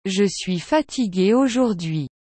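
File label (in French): "Je suis fatiguee aujourdhui", French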